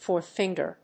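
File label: "fourth finger", English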